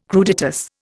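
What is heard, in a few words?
"crudités", Indian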